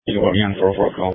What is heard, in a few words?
Sheriff Dispatch